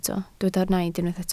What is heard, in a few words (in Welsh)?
"naid", Welsh